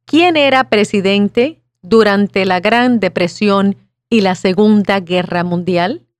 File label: Spanish